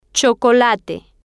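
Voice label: "chocolate", Spanish, female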